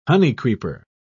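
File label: "honeycreeper", British English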